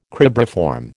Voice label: "cribriform", American